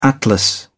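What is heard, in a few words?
"atlas", English, uk British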